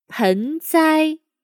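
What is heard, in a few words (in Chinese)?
"盆栽", Chinese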